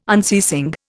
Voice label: "unceasing", Indian